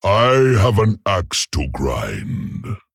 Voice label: Male Tauren Play